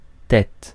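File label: "tête", French; phonemes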